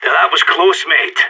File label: radio bot end close, player vo sas